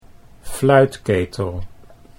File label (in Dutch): "fluitketel", Dutch